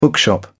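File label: "bookshop", British English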